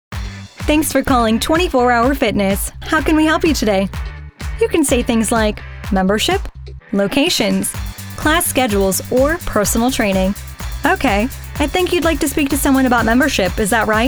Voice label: telephony